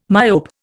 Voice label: "myope", Indian

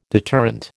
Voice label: "deterrent", American

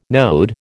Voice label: "node", American

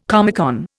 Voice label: "Comecon", Indian

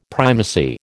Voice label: "primacy", American

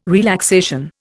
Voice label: "relaxation", Indian

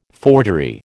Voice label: "forgery", American

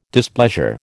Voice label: "displeasure", American

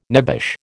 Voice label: "nebbish", American

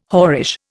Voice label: "whorish", Indian

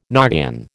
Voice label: "noggin", American

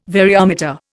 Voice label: "variometer", Indian

